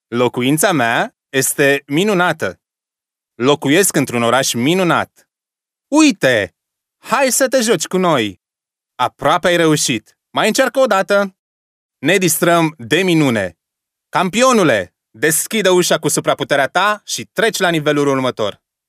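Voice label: Romanian male children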